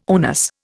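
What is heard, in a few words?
"onus", Indian English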